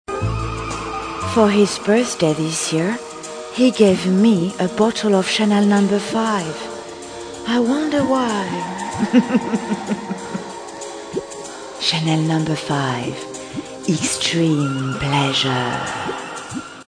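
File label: Very Sexy, Commercials, British; Chanel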